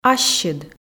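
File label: "ассьыд", Komi, female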